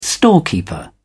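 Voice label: "storekeeper", English, British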